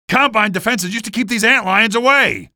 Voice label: alert antlions, male; hl2; ep1